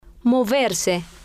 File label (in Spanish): "moverse", spanish